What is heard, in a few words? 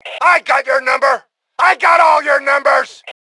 Taunt Brag, Male; T2 Male 05